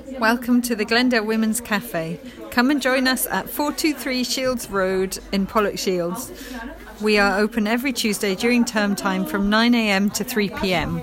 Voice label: English Welcome